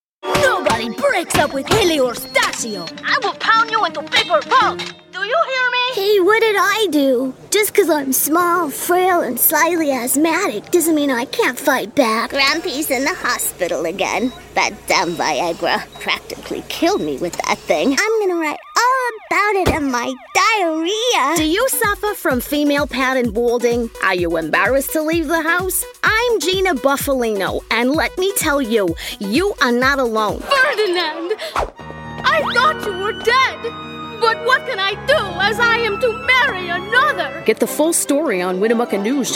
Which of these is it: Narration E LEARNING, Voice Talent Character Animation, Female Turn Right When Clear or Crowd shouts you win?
Voice Talent Character Animation